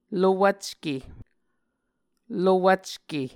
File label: "lowvcke", Muscogee